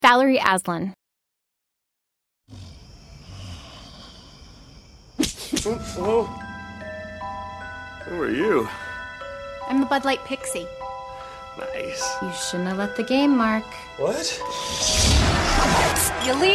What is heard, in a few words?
commercial; Innovative LA